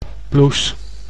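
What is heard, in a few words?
"blouse", Dutch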